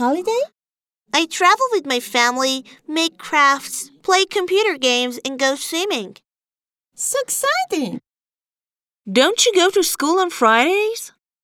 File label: conversation